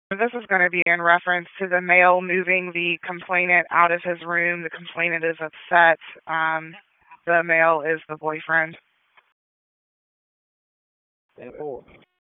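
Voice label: Police Dispatch, p25; Raleigh Police Northeast District Disp; wake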